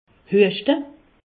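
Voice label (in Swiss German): "hueschte", Alsatian; medecine; tousser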